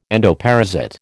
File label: "endoparasite", American